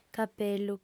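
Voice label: "capellu", female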